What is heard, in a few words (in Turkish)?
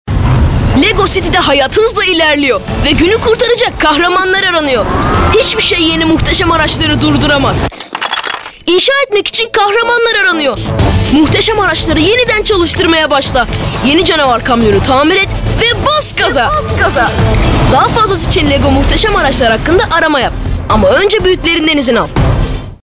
reklam; lego